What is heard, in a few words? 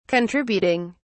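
"contributing", British English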